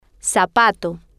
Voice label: "zapato", Spanish

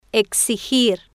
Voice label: "exigir", Spanish

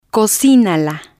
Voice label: "cocinala", spanish

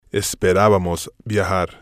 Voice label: "esperabamos Viajar", spanish

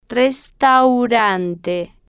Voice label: "restaurante", Spanish

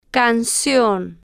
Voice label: "can-cion", Spanish

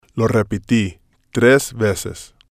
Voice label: "lo Repeti", spanish

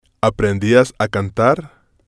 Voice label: "aprendias A Cantar", spanish

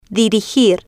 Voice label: "dirigir", Spanish

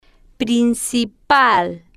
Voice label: "prin-ci-pal", Spanish

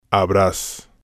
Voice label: "habras", spanish

